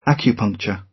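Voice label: "acupuncture", British English; Time, history; Thời gian, lịch sử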